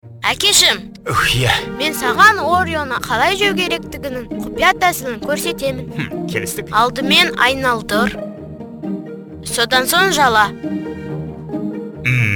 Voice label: boy, kaz